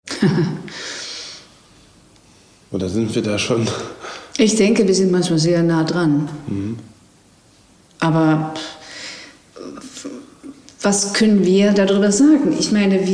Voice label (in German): niederlaendisch f